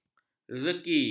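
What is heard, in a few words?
"rezeki", Malay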